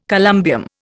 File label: "columbium", Indian